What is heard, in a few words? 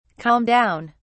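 "calm down", British English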